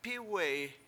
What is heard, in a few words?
"p7Iwe7i", Washo; it fell